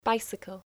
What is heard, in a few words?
"bicycle", English